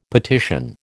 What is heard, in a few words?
"petition", American